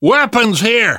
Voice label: spot weapons, survivor voice; namvet; Left 4 Dead 2; left4dead2 dlc2